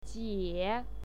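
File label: "jie", Mandarin Chinese pinyin, third tone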